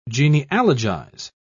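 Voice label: "genealogize", British English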